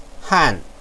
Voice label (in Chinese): "han4"